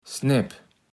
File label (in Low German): "Snep", kaevels platt